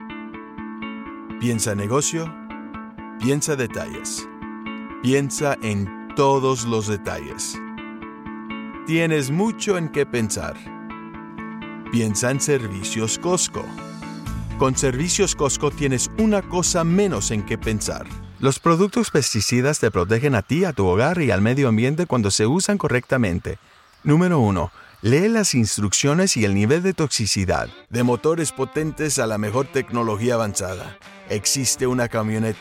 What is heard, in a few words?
SPANISH